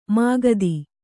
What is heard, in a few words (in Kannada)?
"ಮುಕುತ", Kannada